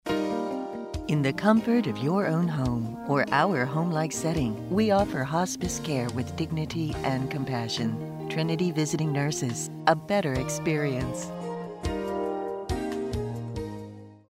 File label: Caring